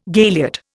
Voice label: "galiot", Indian

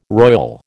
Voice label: "royal", American

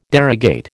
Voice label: "derogate", American

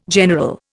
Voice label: "general", Indian

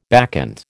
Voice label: "bacchant", American

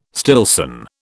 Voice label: "Stillson", British

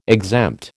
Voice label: "exempt", American

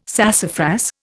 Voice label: "sassafras", Indian

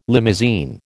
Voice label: "limousine", American

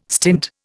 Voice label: "stint", Indian

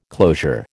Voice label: "closure", American

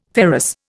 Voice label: "ferrous", Indian

